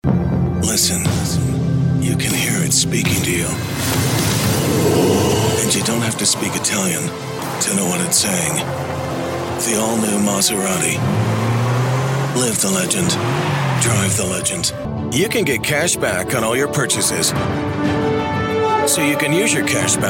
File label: Commercial VO